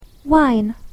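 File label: "wine", English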